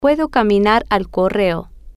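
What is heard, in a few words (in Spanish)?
Puedo Caminar Correo, spanish, female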